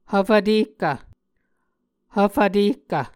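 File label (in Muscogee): "hvfvtehkv", Muscogee word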